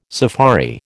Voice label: "safari", American

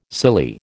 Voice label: "silly", American

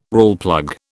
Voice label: "Rawlplug", British

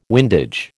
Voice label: "windage", American

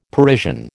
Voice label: "Parisian", American

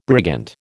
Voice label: "brigand", American

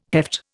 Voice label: "heft", Indian

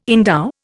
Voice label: "endow", Indian

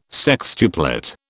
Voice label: "sextuplet", British

